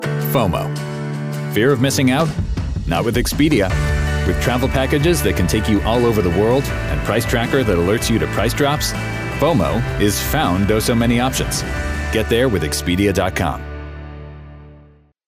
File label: Commercial; Expedia